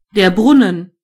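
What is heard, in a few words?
fountain, German voices words; gcompris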